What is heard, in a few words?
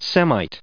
"Semites", us